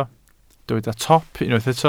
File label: "top", Welsh